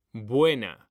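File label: "buena", Spanish, male; mekanta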